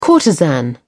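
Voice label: "courtesan", British English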